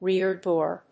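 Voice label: "rear door", English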